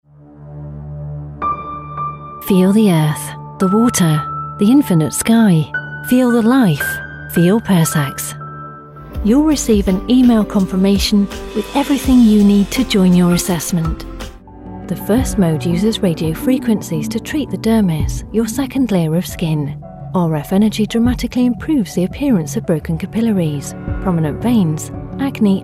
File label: english british corporate videos